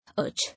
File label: "Urge", English